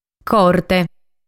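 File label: "Corte", Sardinian word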